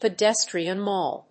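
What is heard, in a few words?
"Pedestrian mall", English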